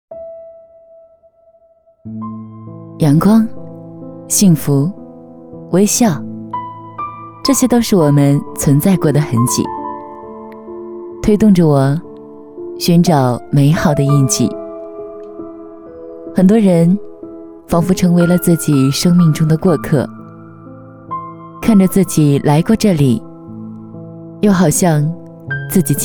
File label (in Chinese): 微电影, 女